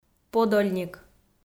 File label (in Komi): "подольник", Komi